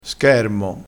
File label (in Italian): "schermo", crespinese